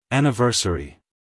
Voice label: "anniversary", US, male

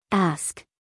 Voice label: "ask" us female; everyone-can-use-english

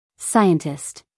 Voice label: "scientist", US female

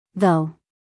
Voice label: "though", us female; 1000 hours

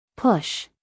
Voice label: "push", US female

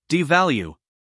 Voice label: "devalue", us male; everyone-can-use-english

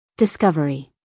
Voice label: "discovery" US English, female; everyone-can-use-english

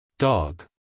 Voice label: "dog" US English, male; everyone-can-use-english

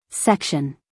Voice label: "section", US female; 1000 hours

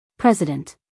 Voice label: "president", US English, female

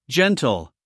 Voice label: "gentle", us male; everyone-can-use-english

